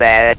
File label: "bad", votrax; VIDEO GAMES